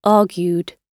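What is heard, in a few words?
"argued", British English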